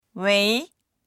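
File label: "wei2", Mandarin Chinese; 喂; （電話の呼びかけや応答など）もしもし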